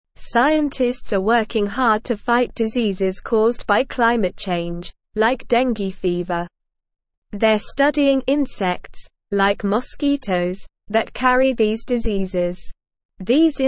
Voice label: Upper Intermediate SLOW Reading, English; English Newsroom; Using Insect Surveillance and Climate Forecasts to Fight Climate Fuelled Diseases; Scientists are working hard to fight diseases caused by climate change, like dengue fever.

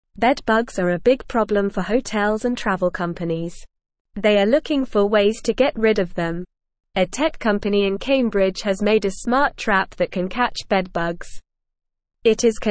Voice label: English Upper Intermediate NORMAL Reading; Smart Trap Innovative Solution to Combat Bedbugs; English Newsroom

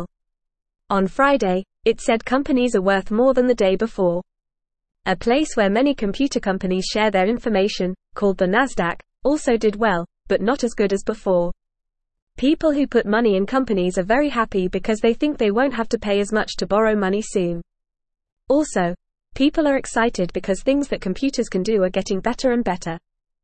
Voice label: English Newsroom Beginner FAST Reading; Companies Doing Well Prices Not Going Up Fast

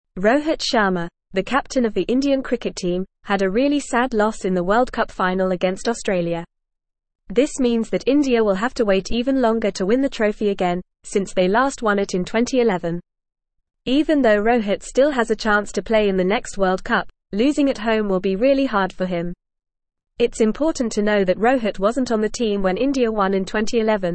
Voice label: English Upper Intermediate FAST Reading; Rohit Sharma The Unsung Hero of Indian Cricket; English Newsroom

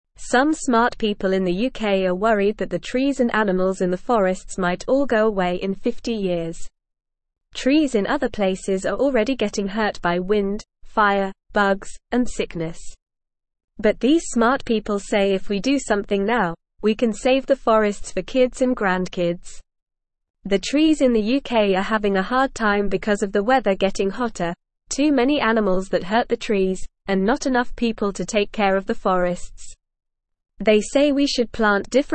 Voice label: English Beginner NORMAL Reading; English Newsroom; Smart People Want to Save the Forests

